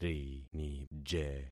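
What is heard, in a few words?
"rinije", concatenated syllables; Affixing experiment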